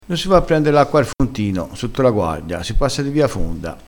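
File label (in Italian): "fontino", vocabolario dialettale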